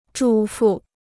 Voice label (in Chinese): "主妇", Chinese